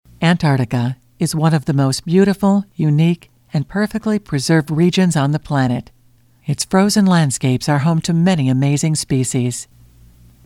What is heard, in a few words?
Narration Dry Voice